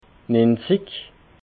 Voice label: "niinzig", alsace

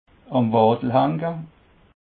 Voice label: "wattelhaenge", alsace

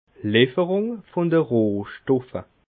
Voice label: "lifferung", alsace

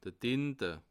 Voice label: "tinte", alsace

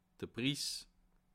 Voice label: "priss", Alsace

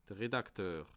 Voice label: "redakteur", alsace

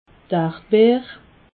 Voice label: "ardbeer", alsace

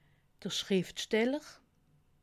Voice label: "schrifsteller", alsace

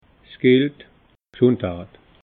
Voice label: "s gilt", alsace

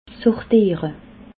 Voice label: "sortiere", alsace

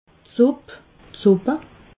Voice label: "supp", alsace

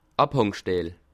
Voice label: "abhangsteil", alsace

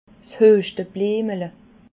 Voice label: "hueschtebliemele", alsace

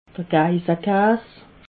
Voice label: "geissekaas", alsace

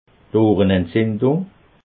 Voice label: "ohrenentzindung", alsace

